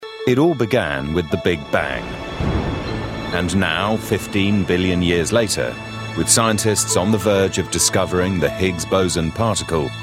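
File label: British English narrative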